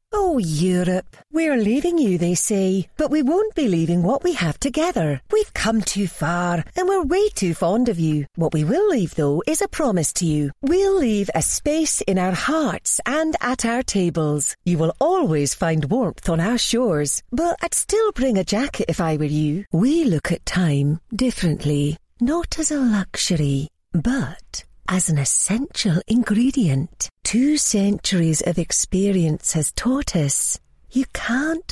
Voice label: scottish

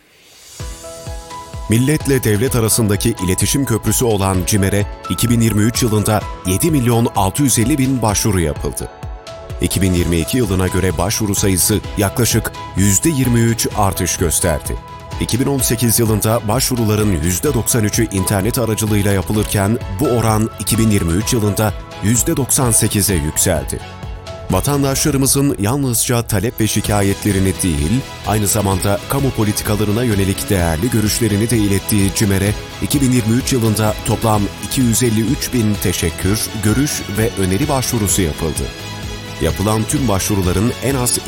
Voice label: voiceover; cimer

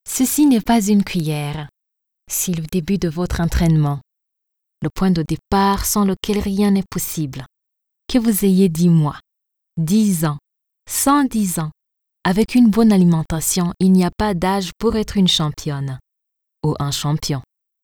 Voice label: voices video teasing

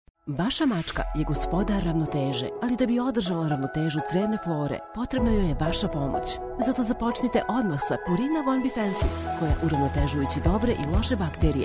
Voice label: commercial; purina

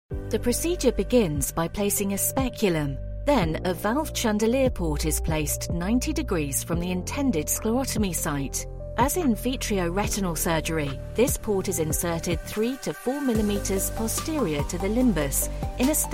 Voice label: sclerotomy explainer medical narration

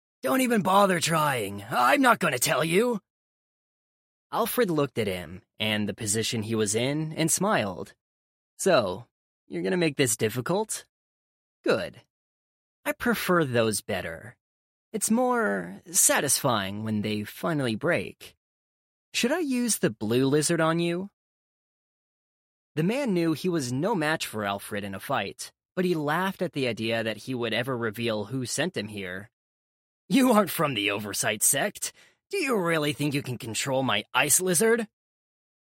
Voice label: audiobook sample

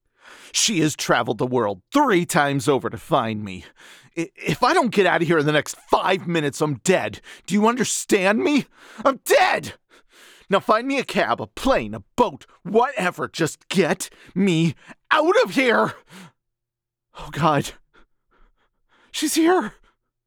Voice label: panicked runaway

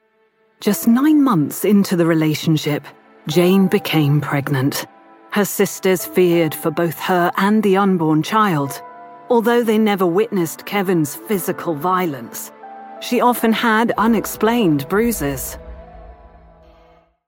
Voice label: narration documentary drama

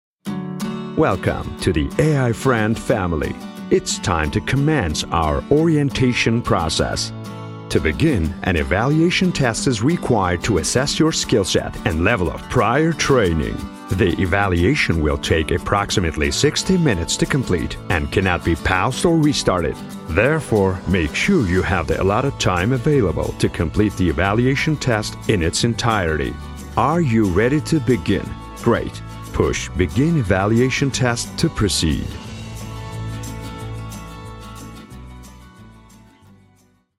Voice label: sample educational

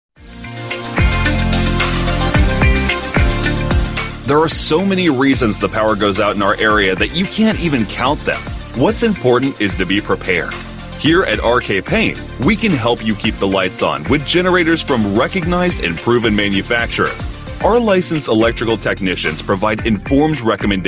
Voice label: on hold messaging

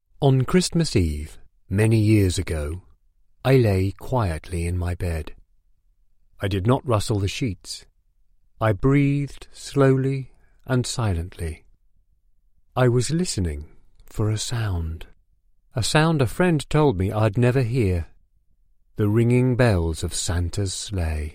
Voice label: audiobook

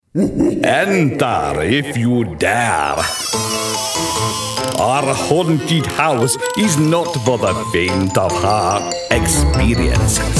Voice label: vampire host

